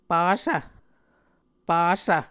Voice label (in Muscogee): "pasv", Muscogee